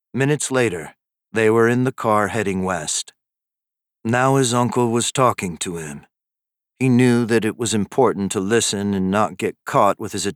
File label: True Crime Intensity; Road Out Of Hell